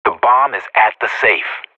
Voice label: radio bot bomb at safe, vo agents professional; Source Model Packs; Counter Strike Model Pack; CS2